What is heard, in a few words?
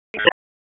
Police Southeast District Tac; Raleigh; p25; wake